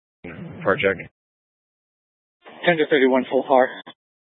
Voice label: Incident Tac, scanner; Incident Tac 1